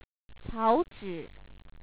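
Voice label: "草纸", Chinese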